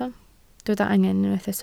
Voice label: "angen", Welsh